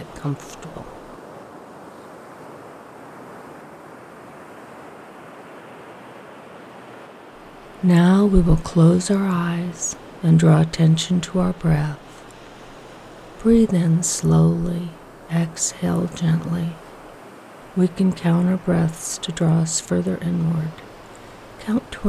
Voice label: Guided Meditation with Ocean Wave Sounds